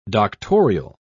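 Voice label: "doctorial", British English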